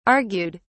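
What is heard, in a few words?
"argued", British English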